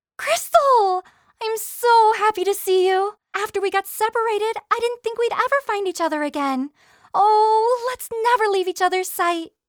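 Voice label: Character Bright Clear Princess, Female; American English voice-over artist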